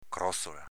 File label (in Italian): "crozola", dialetto veneto; cròzola s.f. stampella